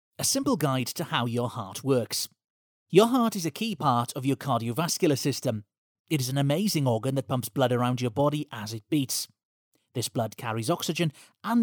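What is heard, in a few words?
English Welsh male, welsh english voice over talent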